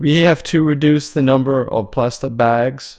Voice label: neutral; emov db